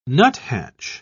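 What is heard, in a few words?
"nuthatch", English, British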